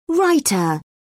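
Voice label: "raita", British English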